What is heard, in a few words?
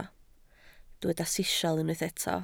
"sisial", Welsh